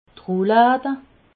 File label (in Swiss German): "roulade", alsace